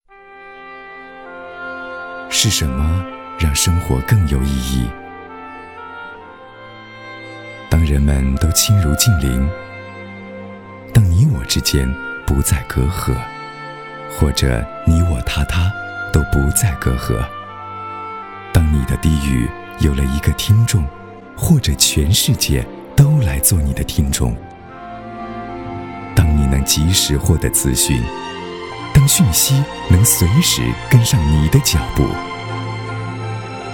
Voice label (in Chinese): 男 广告 温暖 时尚; 腾讯